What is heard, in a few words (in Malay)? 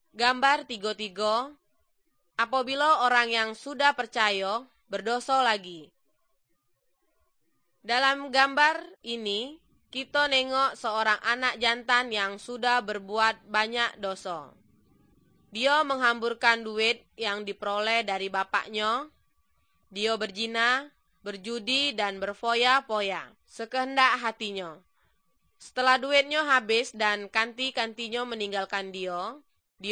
good news malay jambi